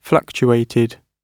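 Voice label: "fluctuated", British English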